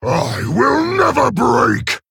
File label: Male Orc